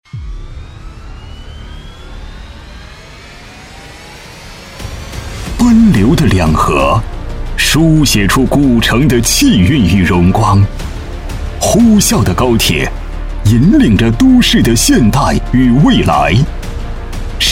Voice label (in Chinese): 男,大气浑厚,招商汇报; 濉溪招商汇报